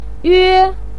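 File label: "yue1", Mandarin pinyin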